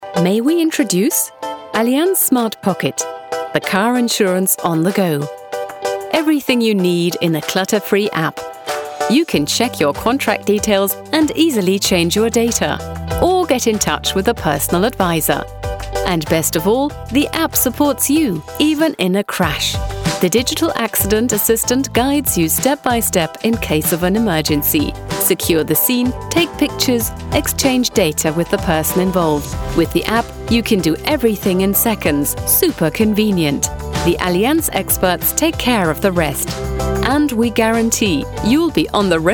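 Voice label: English, advert; Allianz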